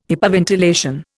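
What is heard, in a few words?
"hypoventilation", Indian English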